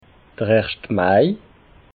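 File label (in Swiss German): "erscht mai", Alsatian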